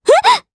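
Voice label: Vox Sur Japanese; Pansirone; kingsraid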